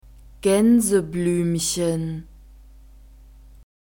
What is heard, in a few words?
"gaensebluemchen", German; nouns gender feminine